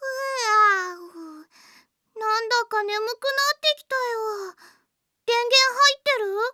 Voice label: battery low, Japan Anime Girls; Windows; Bing; Nana

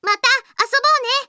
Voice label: win close, Japan Anime Girls; Windows; Bing; Nana

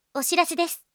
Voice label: message, Japan Anime Girls; Windows; Bing; Nanami

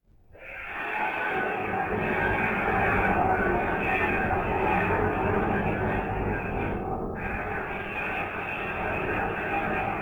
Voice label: ambient Voices; Xbox

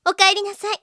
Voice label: logon, Japan Anime Girls; Windows; Bing; Nanae

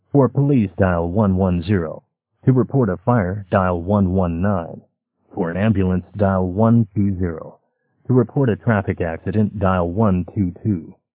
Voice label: voice boy english; braillesense

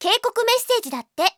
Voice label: alert, Japan Anime Girls; Windows; Bing; Nanako

